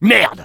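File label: negative vocalization, French; Sniper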